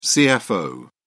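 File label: "cfo", British English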